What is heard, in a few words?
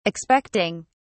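"expecting", British English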